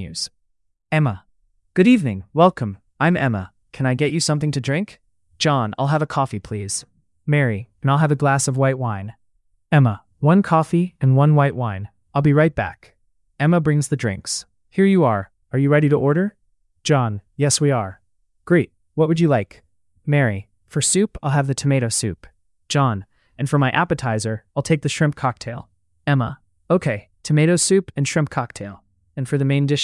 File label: Dialog Eat at western restaurant; english